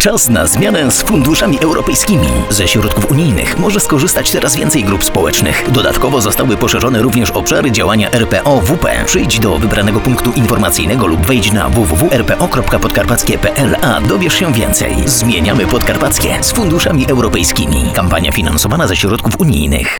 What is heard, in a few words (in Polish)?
Spot radiowy